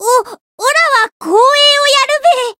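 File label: Voice encounter, Japanese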